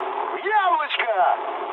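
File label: Russian bomb success mood med, speech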